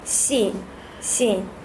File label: xin female